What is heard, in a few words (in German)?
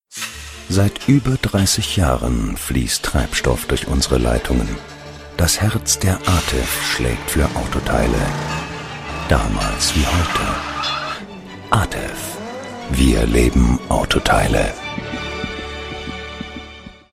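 Werbung autoteile, advert; atev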